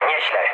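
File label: trick mood low, speech Polish; War Thunder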